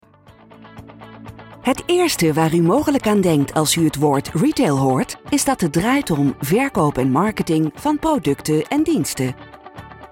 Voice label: dutch female explainer